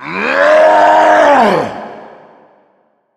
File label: controller script attack, russian sounds